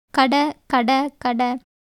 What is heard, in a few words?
Tamil